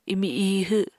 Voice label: "imi ihw", Apatani